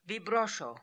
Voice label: "Vibrosho", pronounciations; Fourth Way; Beelzebub